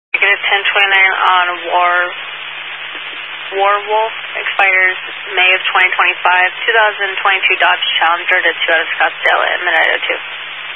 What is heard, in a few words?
Highway Patrol, Phoenix Metro West Area, scanner